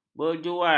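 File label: "berjual", Malay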